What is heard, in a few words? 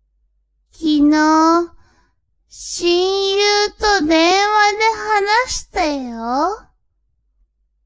woman